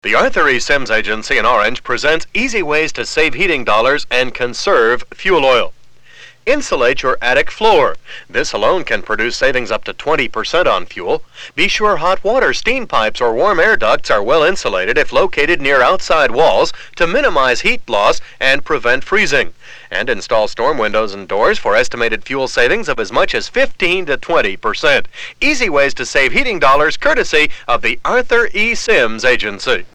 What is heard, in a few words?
commercial; WJMA; A E Simms